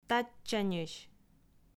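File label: "татчаньысь", Komi, female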